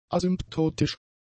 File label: "asymptotisch", German; adjectives